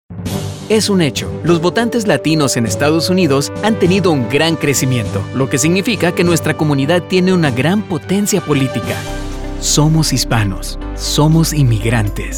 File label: Political Spanish